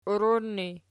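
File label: "uirun" ergative, Archi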